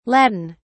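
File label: "latin", British English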